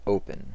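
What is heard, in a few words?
"open", US English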